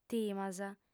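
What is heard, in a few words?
"timas", Sardinian, female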